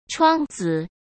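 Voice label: "窗子", Mandarin Chinese; hsk; chinese-flashcards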